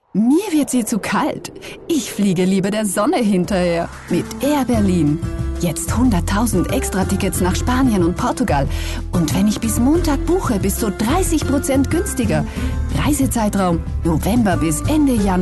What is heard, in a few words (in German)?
Werbung